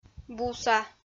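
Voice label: "буса", Komi, female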